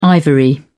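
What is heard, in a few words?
"ivory", British English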